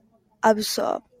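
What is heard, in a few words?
"absorb", Japanese, female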